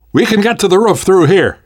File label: world hospital, namvet, survivor voice